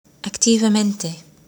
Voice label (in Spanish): "activamente" Spanish; how to learn spanish